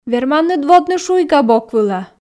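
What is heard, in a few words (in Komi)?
верманныд водны шуйга бок вылӧ, Komi, female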